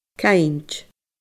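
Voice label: "cainnt", Scottish Gaelic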